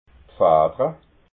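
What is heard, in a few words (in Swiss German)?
"fadra", Alsatian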